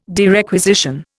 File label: "derequisition", Indian